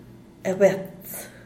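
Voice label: "ebetz", Zapotec, Díaz Ordaz; hermano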